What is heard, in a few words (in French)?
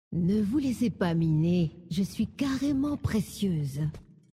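French Femme Flirt; Precieuse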